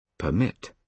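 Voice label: "permit", British English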